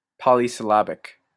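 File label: "polysyllabic", Canadian English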